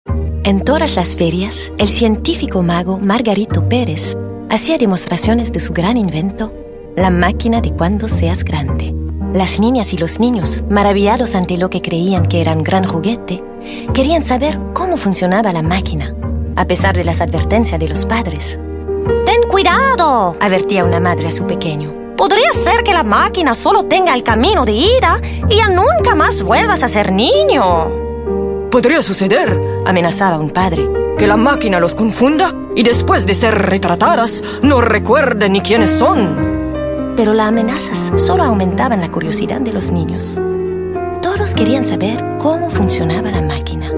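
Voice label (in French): narration espagnol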